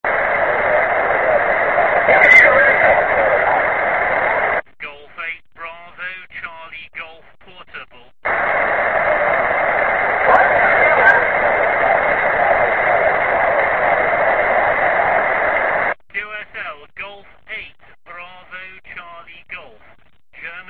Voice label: qso ssb